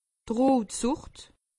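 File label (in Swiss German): "rotsucht", Alsatian; medecine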